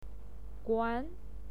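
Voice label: "guan", Mandarin Chinese pinyin, second tone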